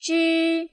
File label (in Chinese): "zhi", pinyin